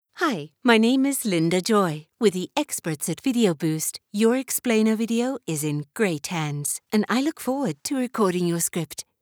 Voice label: British English, voiceover; internationale voiceover